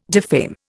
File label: "defame", Indian